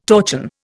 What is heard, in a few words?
"torchon", Indian